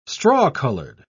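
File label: "straw-colored", English, British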